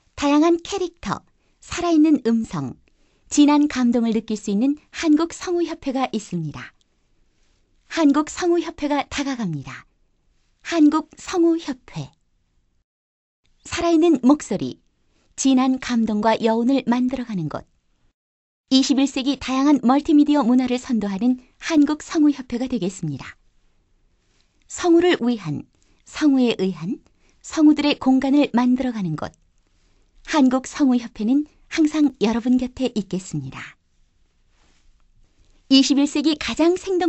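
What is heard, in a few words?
Korean female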